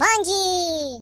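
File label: "Bungee", British English; hedgewars; Default uk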